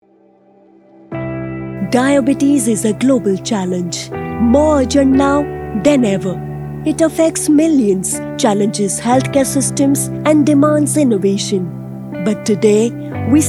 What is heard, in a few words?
Eng; Edec